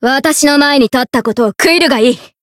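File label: variable chant, Japanese; suzette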